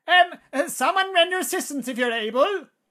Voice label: battle chatter